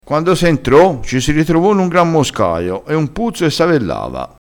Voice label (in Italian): "moscaio", dialettale crespinese